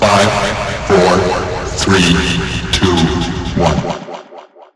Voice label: "1 2 3" robot; numbers and letters

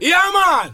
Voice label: yeah man ragga guy

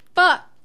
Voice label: "fuck" drag; expletives

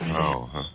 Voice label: "oh" male; ooh ahh

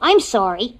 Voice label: "i'm sorry" cartoon; Voice; expletives

